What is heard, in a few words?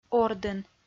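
"ордын", Komi-Zyrian, female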